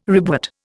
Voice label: "ribwort", Indian